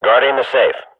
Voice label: radio bot guarding; Counter Strike Model Pack; CS:GO